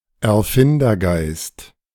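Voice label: "Erfindergeist" German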